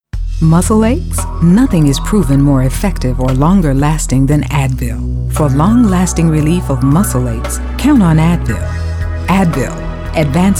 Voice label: Commercial